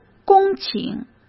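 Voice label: "公顷", Mandarin Chinese; chinese flashcards; hsk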